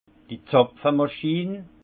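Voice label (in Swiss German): "zapfe machin", Haut Rhin; vin; capsuleur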